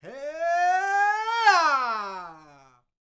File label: MEXICO VOICE, MEXICAN; ILIO; Vocal Planet VOL-5